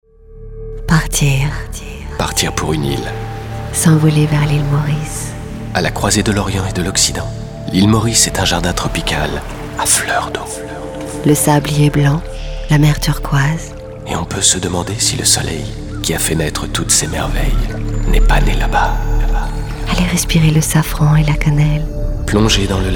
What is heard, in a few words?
radio